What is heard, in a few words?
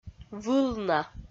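"вылна", Komi, female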